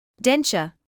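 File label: "denture" English, British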